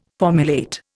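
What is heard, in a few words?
"formulate", Indian English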